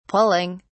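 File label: "pulling", British English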